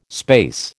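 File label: "space", American English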